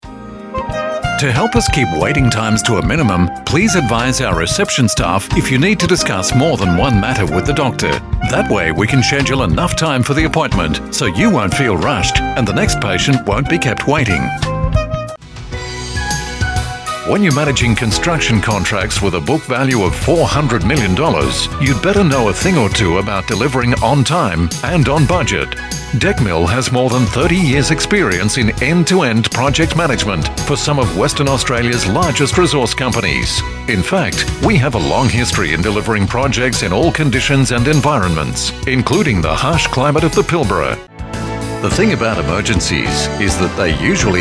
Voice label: onhold